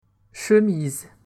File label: "chemise", French